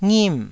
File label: "ngim4", taiwanese syllables